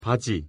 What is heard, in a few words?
"바지", Korean; pronunciation